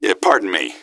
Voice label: pardon me, gasmask; scmod

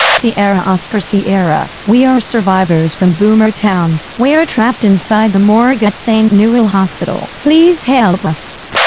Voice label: sierra oscar sierra, radio

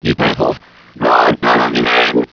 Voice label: gibberish

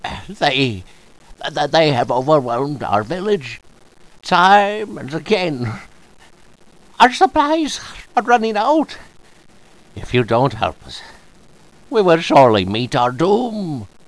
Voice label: old villager

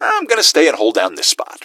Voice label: hold down spot, gasmask; scmod

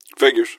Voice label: answer, gasmask; scmod

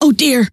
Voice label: "oh dear", scientist female

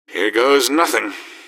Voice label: squad affirm, gasmask; scmod

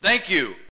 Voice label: "thank you"

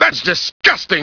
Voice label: disgusting; robotnik

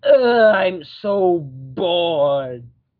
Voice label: bored; forky

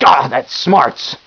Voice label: hurt; barnaby

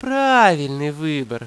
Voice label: dialog

